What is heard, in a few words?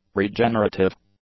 "regenerative", English; adjectives